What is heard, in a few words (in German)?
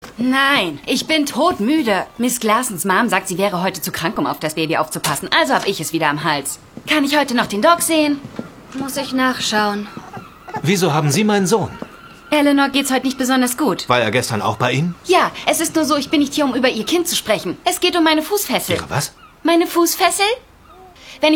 Synchron; Doc Martin (Angie Grappy)